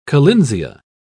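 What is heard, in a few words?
"collinsia", British English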